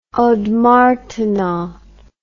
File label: "ondes martenot", English